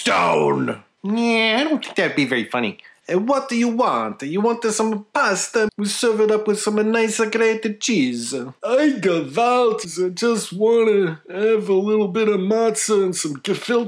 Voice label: Characters Voice